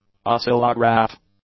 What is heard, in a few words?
"oscillograph", English; nouns